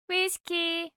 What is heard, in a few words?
voice sound whisky